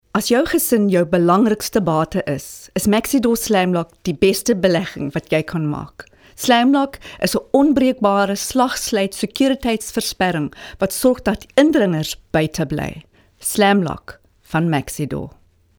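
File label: afrikaans hard sell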